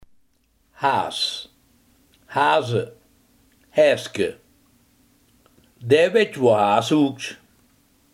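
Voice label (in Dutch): haas, Limburgse gesproken woordenboeken; haas, haas (haze, haeske); Dae wètj woea haas hoektj.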